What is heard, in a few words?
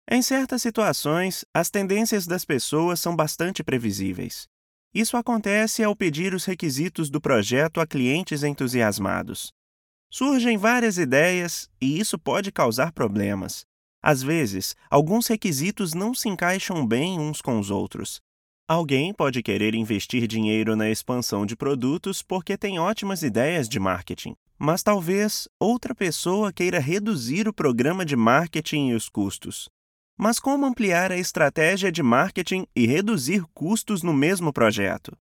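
APRESENTAÇÃO CORPORATIVA CORPORATE PRESENTATION EXPLAINER BUSINESS LIKE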